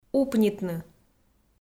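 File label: "упнитны", Komi, female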